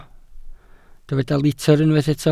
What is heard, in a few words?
"litr", Welsh